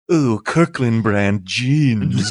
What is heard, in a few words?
saying ooh kirkland brand jeans haughty